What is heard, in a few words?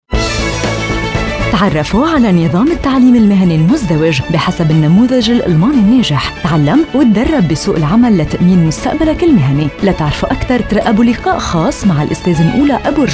Radio Delta Promotion